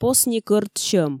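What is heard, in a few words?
"посни кӧрт сьӧм", Komi, female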